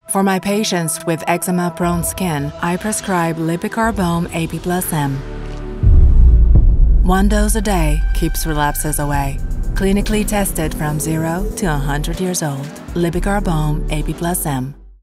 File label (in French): ANGLAIS; LRP Lipikar Baume APM